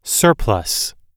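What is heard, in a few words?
"surplus", US English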